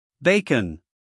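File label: "bacon", American English, male; 1000 hours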